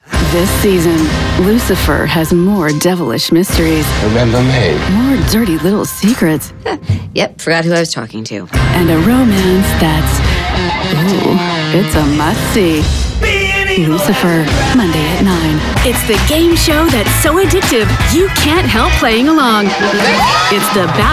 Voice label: promo